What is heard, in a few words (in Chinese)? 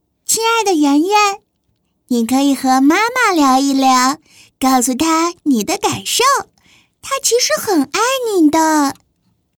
女 模仿 角色; 佩奇